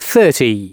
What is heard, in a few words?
announcements male